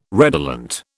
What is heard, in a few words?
"redolent", British